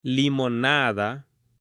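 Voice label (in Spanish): "limonada" Spanish, female; ALIMENTOS; AppLenguaje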